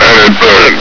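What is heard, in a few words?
"anything!", male; hl2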